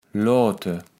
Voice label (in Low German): "loate", kaevels platt